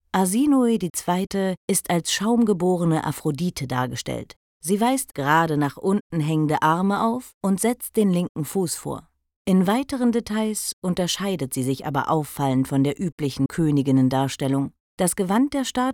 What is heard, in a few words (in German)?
Audioguide